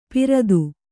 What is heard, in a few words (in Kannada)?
"ಪಿರದು", Kannada